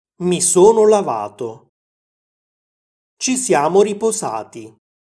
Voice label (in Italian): Easy Italian Passato prossimo dei verbi riflessivi; Italianglot; Easy Italian Pack